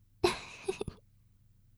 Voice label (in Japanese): 妹 台詞